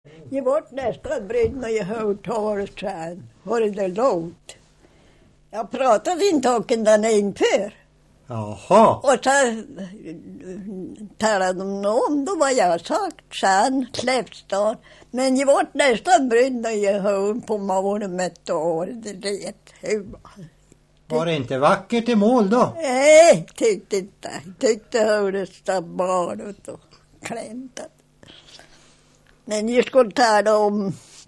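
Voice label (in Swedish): Näs Jämtland, dialekter; Svenska sagor; Folkminnen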